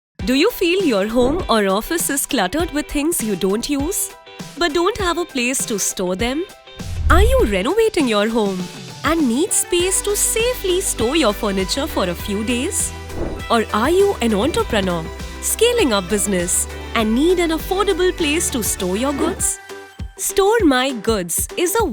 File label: English Explainer; VO; Store My Good